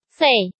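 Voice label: "Fèi", Chinese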